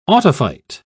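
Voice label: "autophyte", English, British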